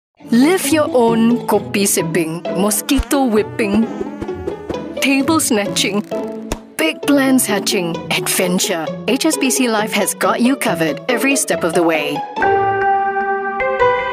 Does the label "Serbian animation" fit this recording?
No